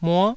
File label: "muo9", taiwanese